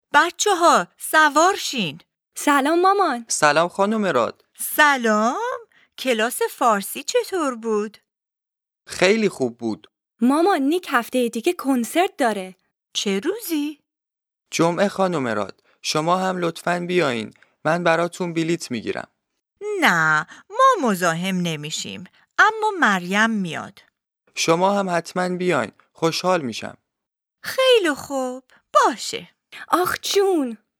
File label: Dialogue lesson Farsi